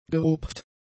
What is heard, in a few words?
"gerupft", German; adjectives